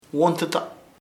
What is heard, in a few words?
"thorn espina", chamacoco